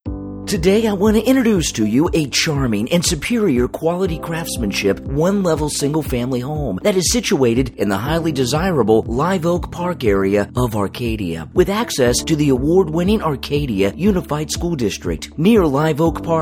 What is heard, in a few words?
Radio Ad; Live Oak